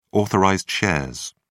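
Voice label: "authorized shares", British English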